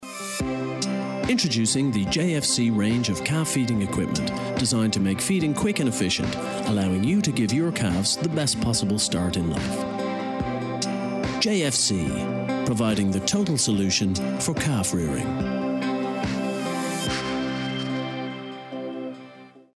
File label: english irish promos